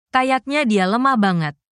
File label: indonesian phrases